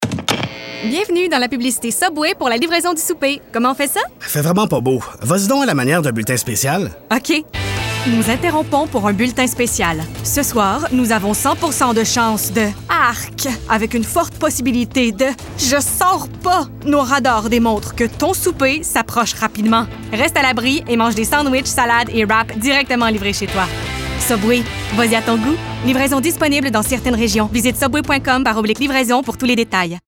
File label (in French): Annonceur; Subway